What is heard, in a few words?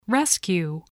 "rescue", English